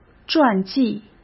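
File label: "传记", Mandarin Chinese; chinese-flashcards; hsk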